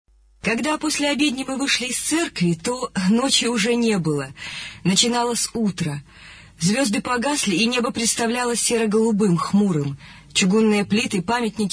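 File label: russian female voice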